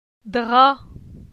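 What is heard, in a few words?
"Dra", French; phonetique; la syllabe a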